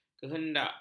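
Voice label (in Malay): "kehendak", Malay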